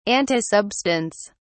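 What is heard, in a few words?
"antisubstance", British English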